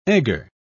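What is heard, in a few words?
"egger", British English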